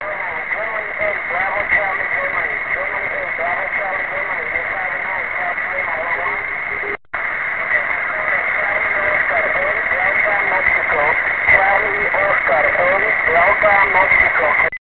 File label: qso